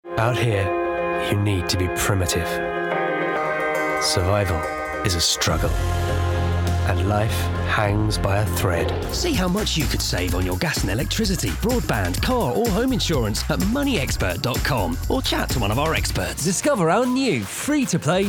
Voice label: Commercial

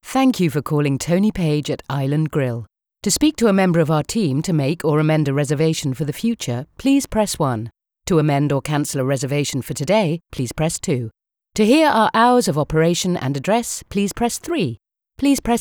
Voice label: ivrs

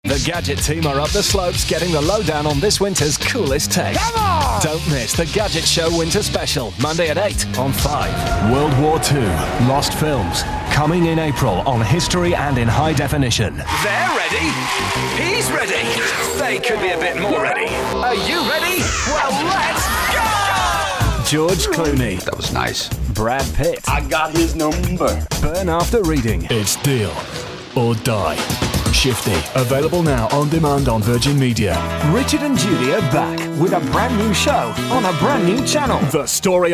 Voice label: promo

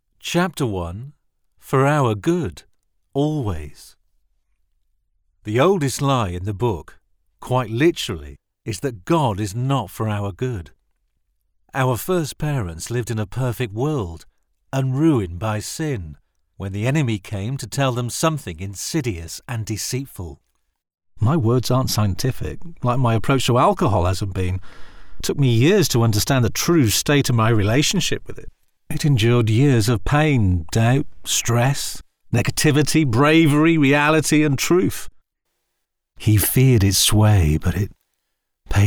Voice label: audiobook

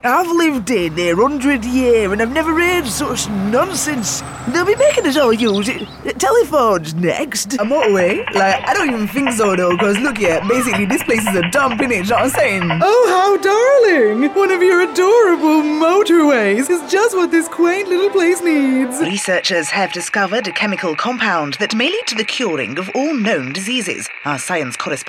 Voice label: Character

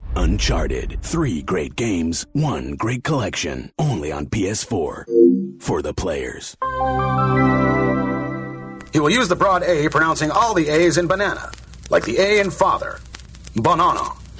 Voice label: US Accent

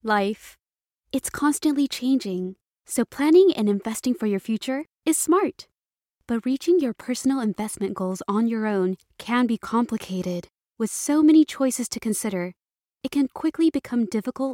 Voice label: US Mid-Atlantic

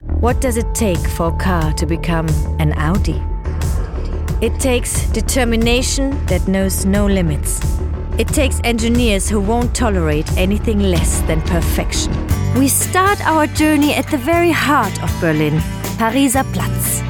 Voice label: ENG

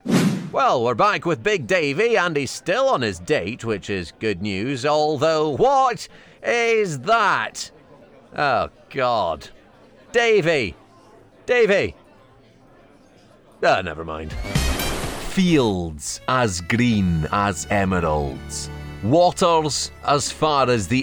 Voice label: Narration

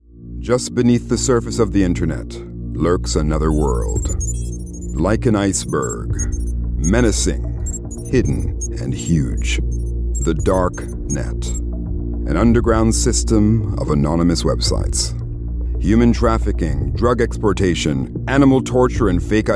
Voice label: Narration

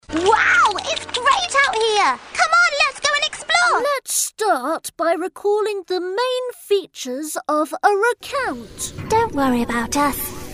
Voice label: Kids Voice Compilation

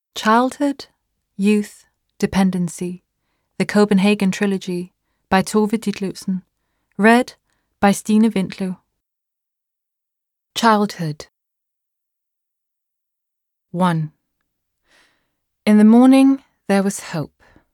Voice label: Audiobook

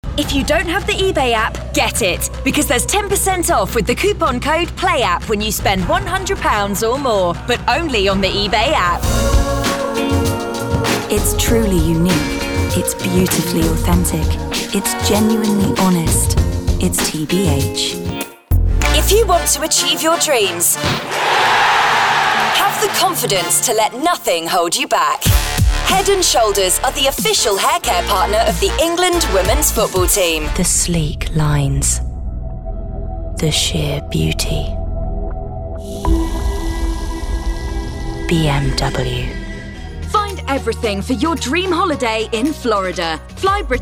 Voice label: Commercial

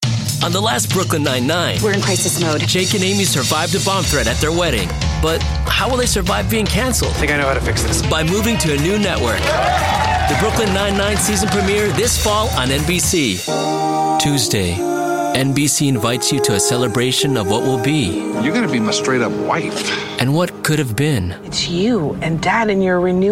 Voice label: TV Promo

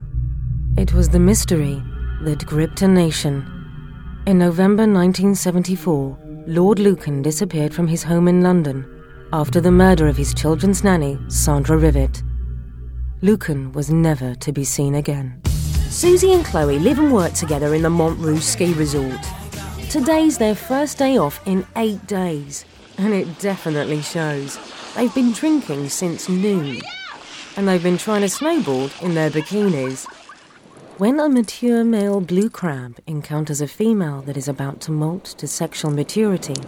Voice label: Narration

